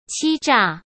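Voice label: "欺诈", Mandarin Chinese; chinese-flashcards; hsk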